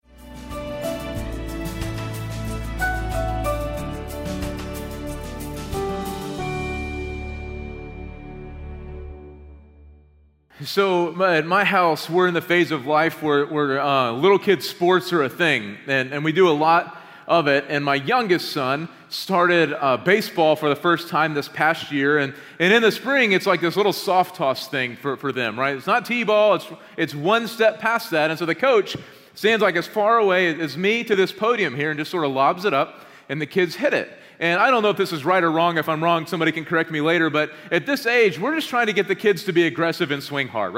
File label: Sermon English